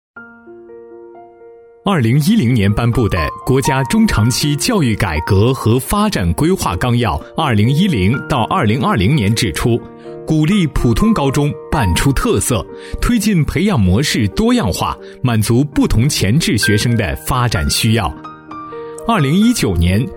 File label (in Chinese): 男 介绍课题类, 浑厚大气 自然诉说; 向善文化; 男D1-介绍课题类 向善文化